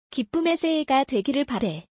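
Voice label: Korean, 기쁨의 새해가 되기를 바래 (gibbeum’ui saehaega doe’gireul barae) - inf; 기쁨의 새해가 되기를 바래요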